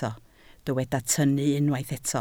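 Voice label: "tynnu" Welsh, spoken word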